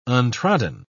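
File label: "untrodden", British English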